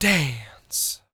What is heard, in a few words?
DANCE, R&B Dance, FEM. SPOKEN; Spectrasonics Vocal Planet